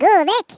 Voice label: "go away", Norwegian; Speech; 11khz